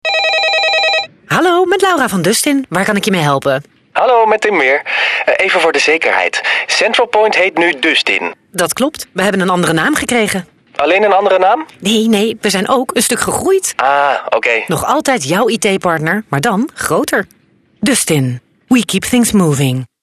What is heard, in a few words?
Radiocommercial, NL